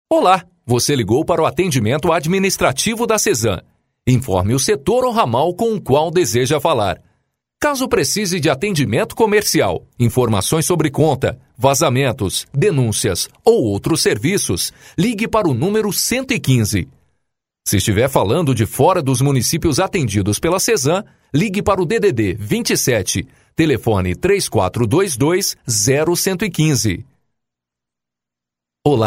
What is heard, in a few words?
portuguese brazilian ivr